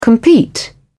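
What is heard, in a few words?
"compete", British English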